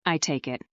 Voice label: fem